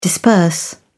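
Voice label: "disperse", English, British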